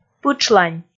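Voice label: "пытшлань", Komi, female; kpv audio dict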